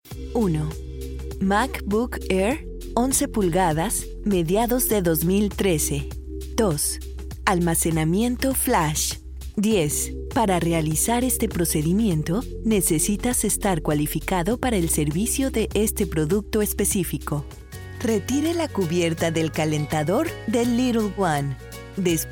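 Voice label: Spanish, Latin American, Mexican, female, informative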